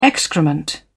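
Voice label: "excrement", British English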